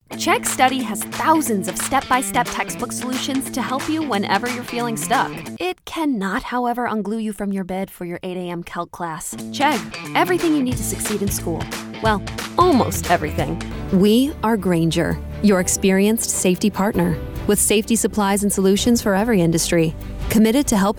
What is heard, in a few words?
Voiceover, Commercial, Women; Chicago